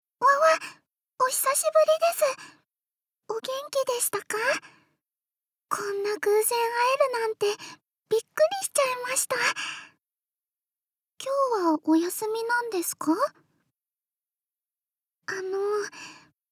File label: Young girl